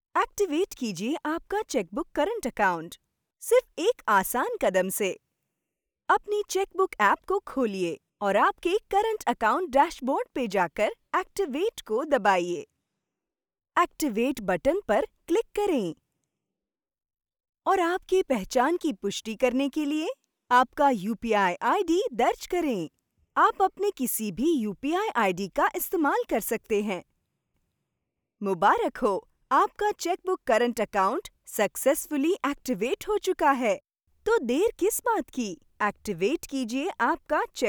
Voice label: Hindi VO; Chqbook

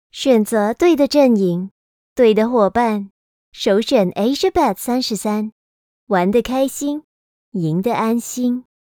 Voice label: Chinese Sensual Sample

